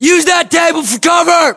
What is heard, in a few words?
order cover table, us; localized english; battlechatter